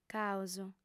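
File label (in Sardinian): "caos", female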